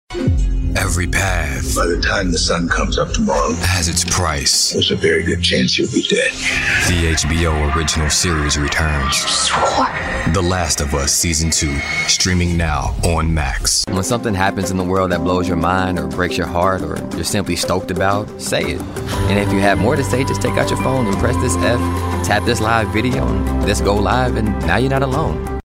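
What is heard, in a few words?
Democrat, Political, Male Voiceover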